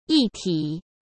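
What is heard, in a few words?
"一体", Mandarin Chinese; hsk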